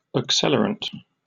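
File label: "accelerant", British English; pronunciation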